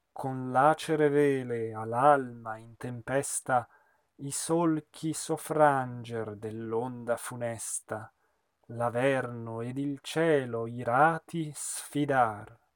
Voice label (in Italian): espressivo; Un ballo in maschera; Di tu se fedele